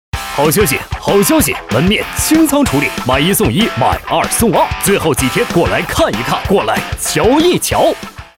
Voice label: 促销广告

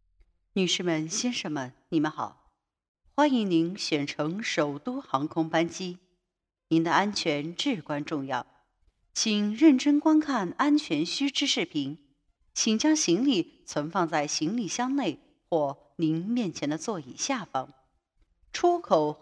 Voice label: 语音提示类广告配音

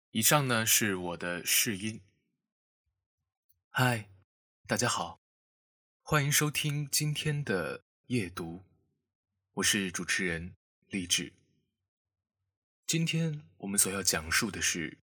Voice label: 试音情感电台

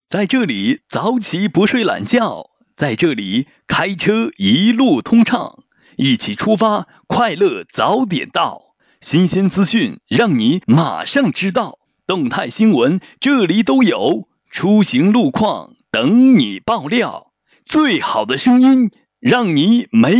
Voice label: 沉稳电台电台节目片头

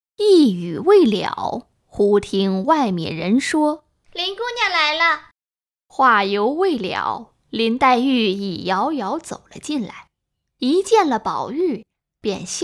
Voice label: 多角色配音; 红楼梦

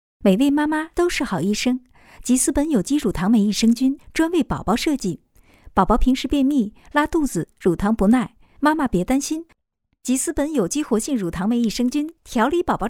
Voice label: 儿童奶粉广告

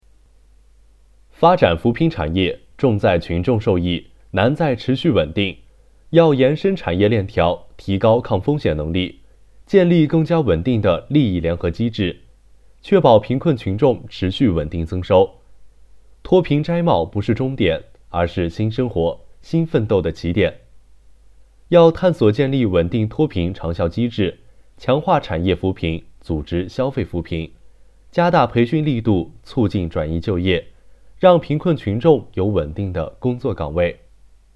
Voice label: 新闻

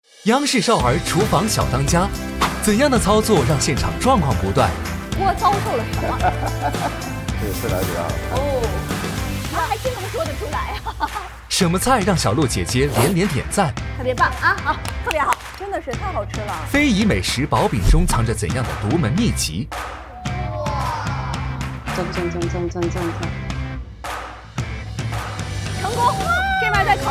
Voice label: 活力预告; TVC-活力预告-《厨房小当家》

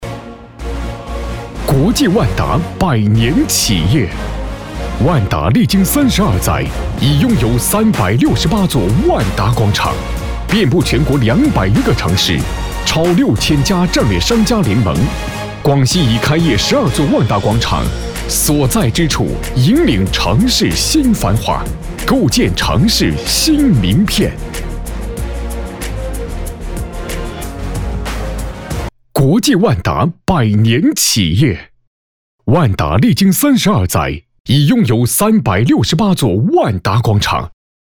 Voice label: 广告 地产; 梧州万达

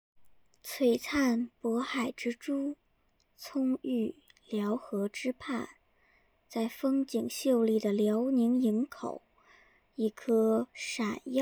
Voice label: 游戏语音试音

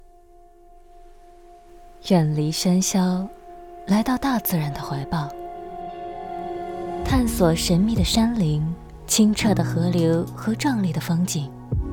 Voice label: 生活旅游休闲类广告舒缓安静

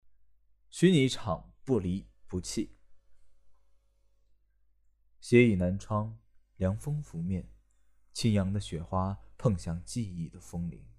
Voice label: 朗读

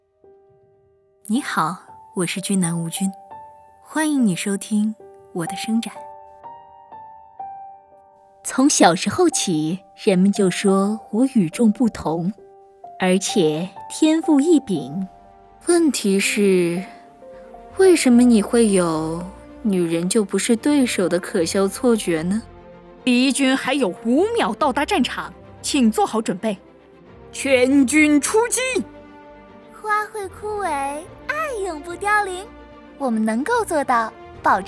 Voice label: 游戏角色声线展示

